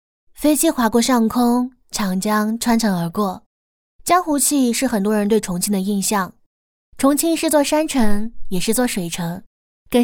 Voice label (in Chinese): 女 独白 旅行素人分享; 模仿房琪